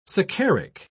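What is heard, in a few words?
"saccharic", English, British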